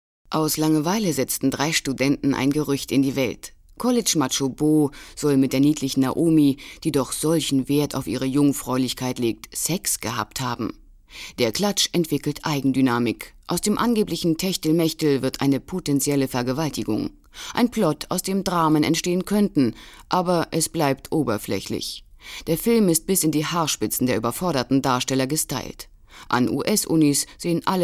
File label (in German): Kommentar